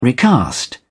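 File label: "recast", British English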